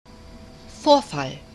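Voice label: "vorfall", German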